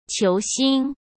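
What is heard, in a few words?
"球星", Mandarin Chinese; hsk; chinese-flashcards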